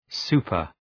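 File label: "super-", English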